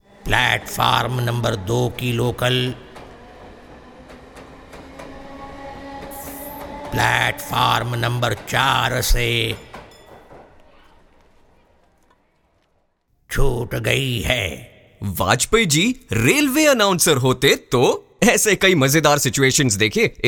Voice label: Hindi; Pehchan Kaun; Atal Bihari Vajpayee; Star One